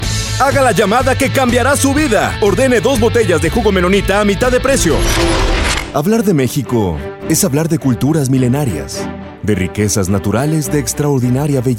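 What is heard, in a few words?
Spanish Latin American General; GoLocalise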